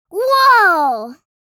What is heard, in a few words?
Woah girl child kid